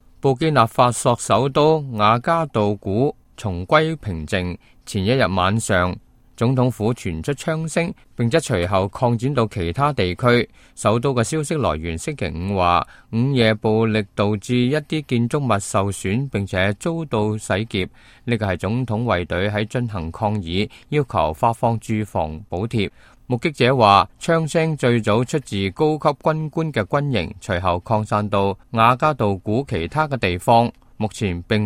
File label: cantonese, news